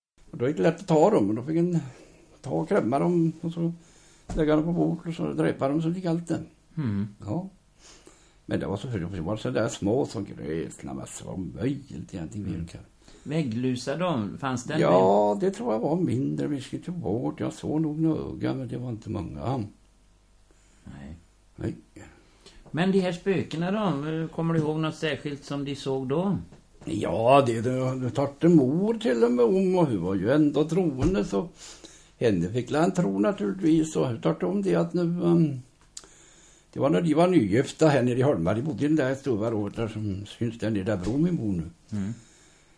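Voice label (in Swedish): Västergötland, Svenska sagor, dialekter; Folkminnen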